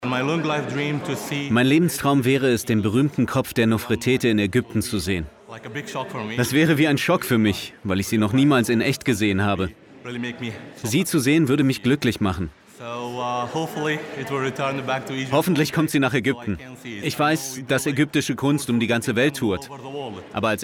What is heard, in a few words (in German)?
Doku VO; LOFT VOICES